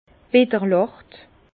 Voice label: "bitterlacht", Bas Rhin; vin; astringent

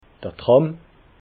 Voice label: "tram", alsace

